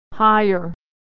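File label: "hire", American English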